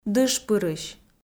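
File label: "дышпырысь", Komi, female; kpv audio dict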